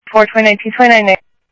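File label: Sheriff East County, scanner audio trunk; MCSO - EAST Sheriff-EastCnty; ThompsonPeakScot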